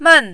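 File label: "men", Mandarin, fourth tone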